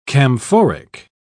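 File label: "camphoric", British English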